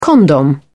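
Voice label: "condom", British English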